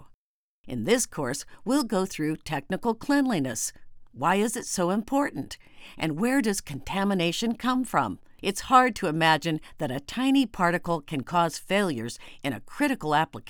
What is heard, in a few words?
Intro Technical Cleanliness, e-learning